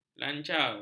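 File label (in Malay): "lancar", Malay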